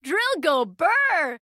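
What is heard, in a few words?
English; 探奇（守望先锋2）; 终极技能; 愚人节语音; 友方释放语音; Drill go brrrr!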